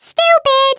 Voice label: "stupid", American

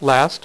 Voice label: "last", Dealer's Speech; PowerPOKER Demo

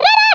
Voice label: "run away", Speech, Dutch; International; 11khz

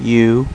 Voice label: "u" male, alphabet; Web Clip Empire 150,000

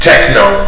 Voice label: TECHNO, VOICE

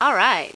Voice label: happy; Spades Deluxe

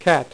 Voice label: "CAT", dealer's speech; PowerPOKER Demo

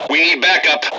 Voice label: suppressed, M Voice English

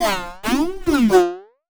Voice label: VOICEFX TEKNO 140, Techno, Voice

